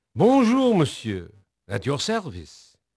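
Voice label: French male, voice; Pirates Of The Caribbean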